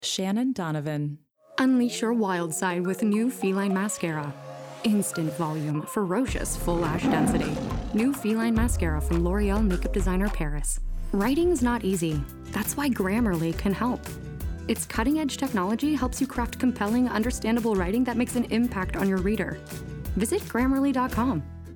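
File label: commercial VO; 2025 mfa acting showcase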